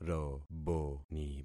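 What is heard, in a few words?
"roboni", concatenated syllables; Affixing experiment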